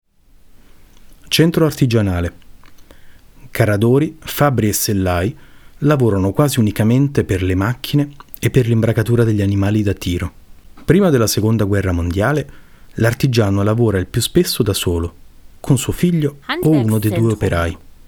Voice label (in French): MULTILINGUE